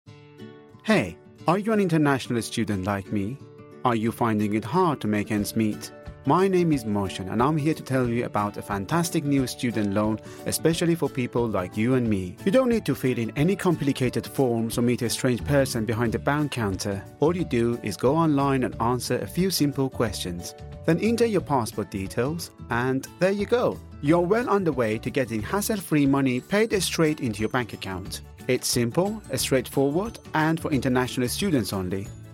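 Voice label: Farsi accented English Commercial; GoLocalise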